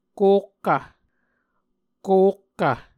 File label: "kokkv", Muscogee; A Dictionary of Creek/Muskogee; SIL International